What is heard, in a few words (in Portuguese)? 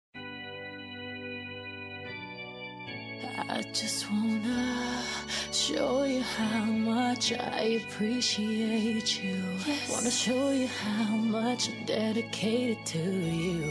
RECONCILIAÇÃO AMIZADE MASCULINO